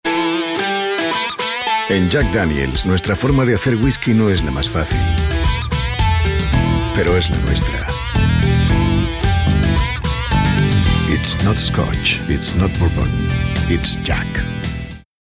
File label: male spanish comercial; ideasonora